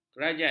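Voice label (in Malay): "kerajat", Malay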